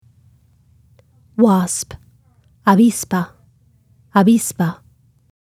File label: wasp spanish; insects-animals